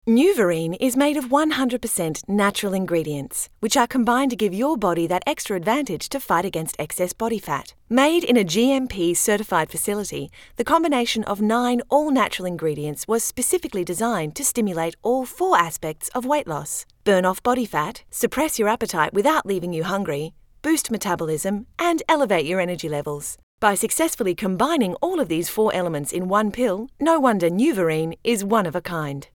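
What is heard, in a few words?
Australian Accent; foreign languages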